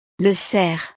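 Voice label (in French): "le cerf", French; el venado; animales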